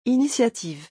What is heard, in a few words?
"initiative", French